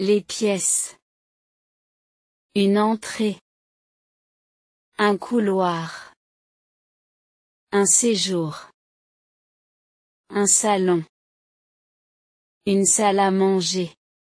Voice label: rooms in french audio pronunciation

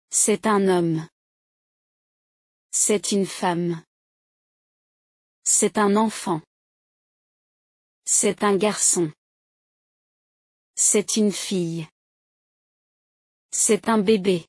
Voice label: physical description french lesson

